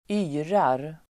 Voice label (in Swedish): "yrar", Swedish